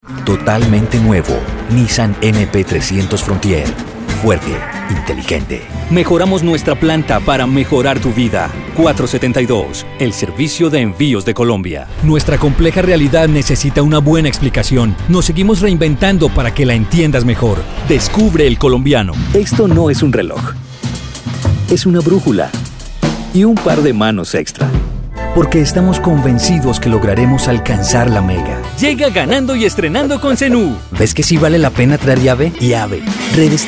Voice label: Spanish Neutral Latinamerican Voiceover

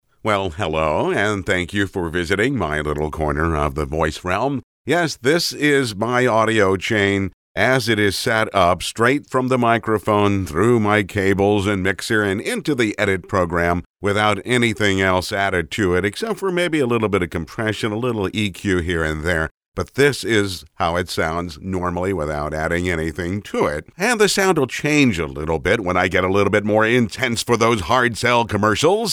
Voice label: studio sample

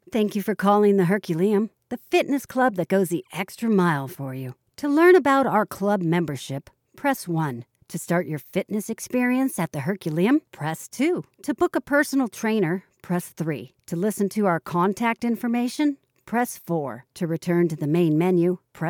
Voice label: telephone dry

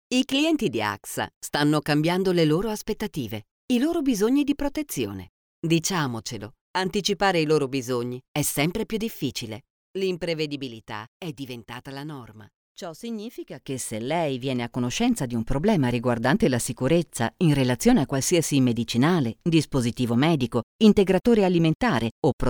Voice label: e-learning

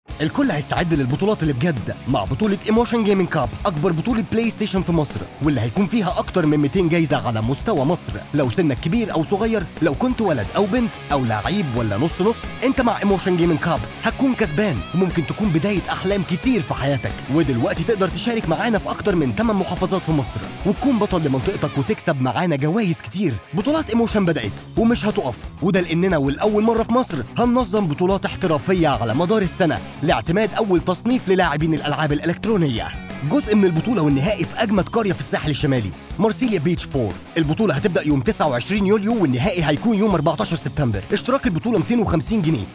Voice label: Emotion gaming

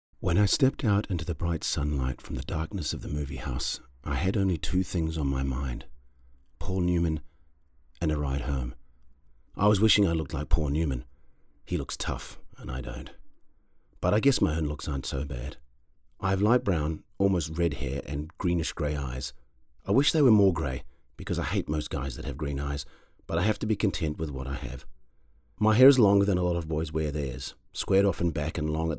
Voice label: audiobook young adults

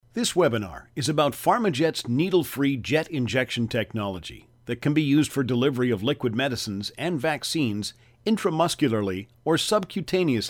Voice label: instructional

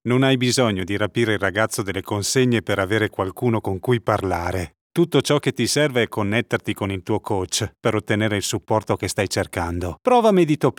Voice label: ITA Commercial; Meditopia

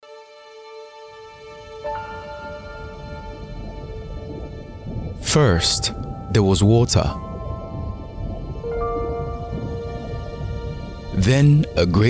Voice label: Dramatic narration

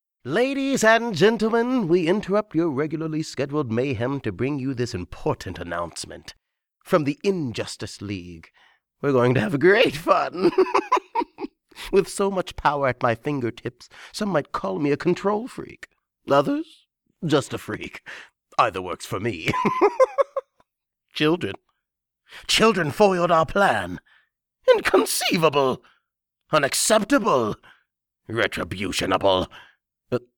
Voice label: Character Voice; Joker

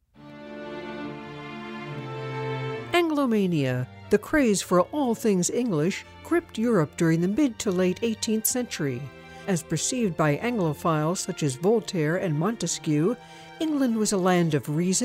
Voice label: NARRATIONS

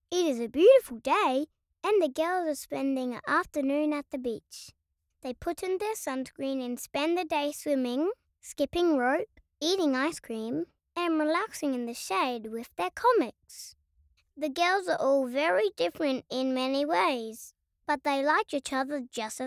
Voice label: Narrator Test Read; Wonderlicious Girls

